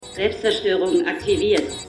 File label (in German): Selbstzerstoerungsstimme; True Lies